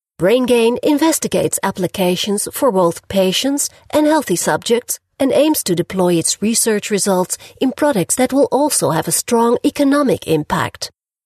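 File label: Dutch, female, English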